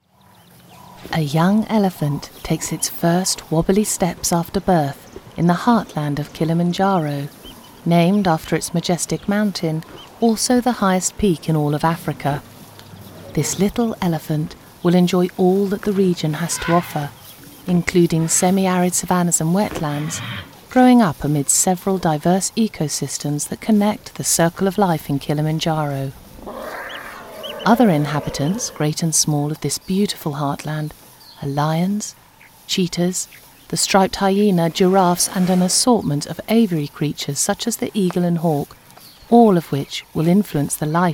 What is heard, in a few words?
Documentary; Elephants of the Savannah